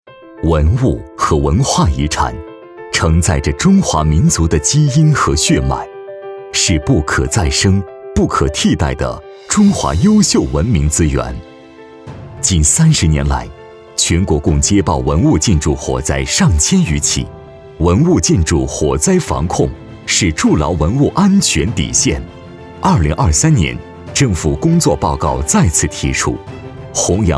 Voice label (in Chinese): 男 政府 科技感 大气; 智慧消防